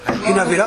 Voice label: "henabera", minamiyamagata